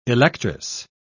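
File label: "electress", British English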